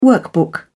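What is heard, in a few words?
"workbook", British English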